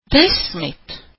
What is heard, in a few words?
"ten", latvian